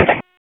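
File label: Raleigh Police North District Tactical; wake; p25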